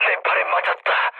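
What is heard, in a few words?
Korean damaged pilot mood low, speech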